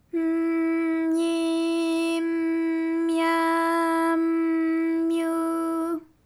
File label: my m myi m mya m myu, JPN; ALYS